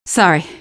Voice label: sorry, female; Half-Life 2